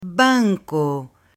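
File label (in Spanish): "BANCO", Spanish, female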